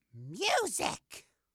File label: "music", English